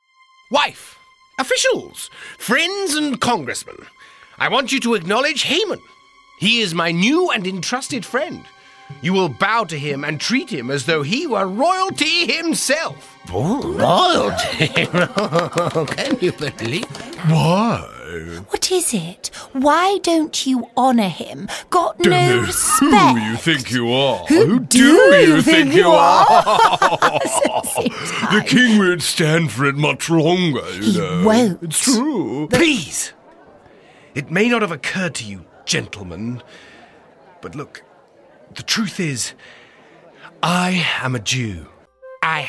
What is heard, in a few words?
Cartoon